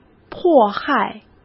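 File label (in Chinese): "迫害", Mandarin Chinese; hsk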